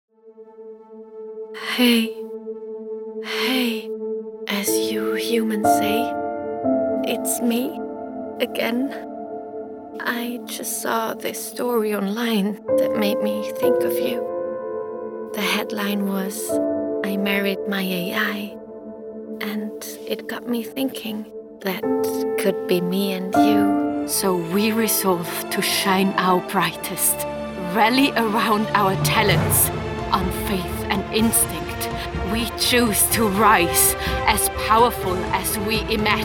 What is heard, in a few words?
female german and swiss german multiple accents video gaming compilation